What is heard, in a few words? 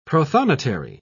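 "protonotary", British English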